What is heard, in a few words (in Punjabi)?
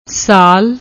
"saal", Punjabi; ਸਾਲ